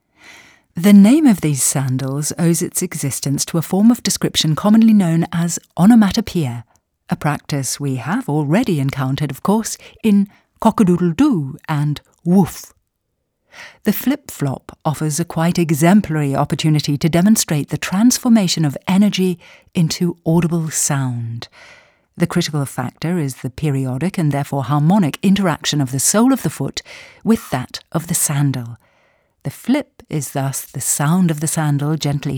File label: EngUK Schauspiel Freundlich